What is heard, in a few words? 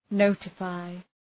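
"notify", English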